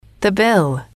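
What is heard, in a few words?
"the bill", English, female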